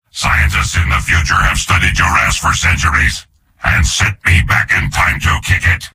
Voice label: soldier robot